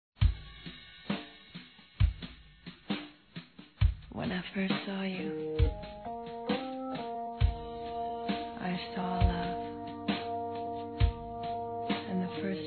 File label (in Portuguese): BOA NOITE ROMANTICO, fem; Bom dia boa tarde boa noite